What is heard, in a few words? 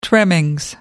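"trimmings", British English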